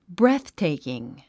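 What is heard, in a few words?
"breathtaking", English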